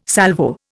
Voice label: "Salvo", Indian